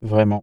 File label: male vraiment flattened transformed; CLEESE; PhaseVocoder; random speech generation; random pitch profile